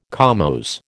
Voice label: "comose", American

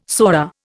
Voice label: "sora", Indian

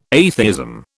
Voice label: "atheism", British

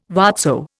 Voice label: "whatso", Indian

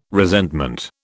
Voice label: "resentment", British

